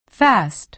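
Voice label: "fast", American English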